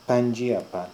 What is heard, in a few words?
"Piandjiapan", pronounciations; Fourth Way; Beelzebub